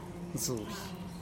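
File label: "zubee", Teotitlán del Valle Zapotec; comb for weaving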